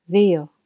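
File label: "Dio", female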